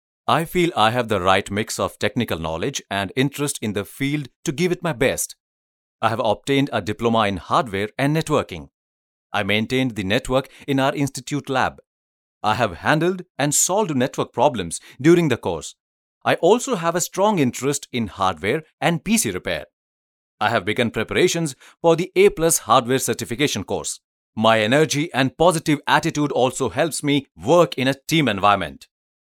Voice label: english, male voice